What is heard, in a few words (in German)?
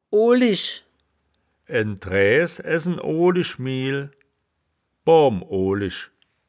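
"oolisch", wittlicher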